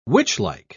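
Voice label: "witchlike", English, British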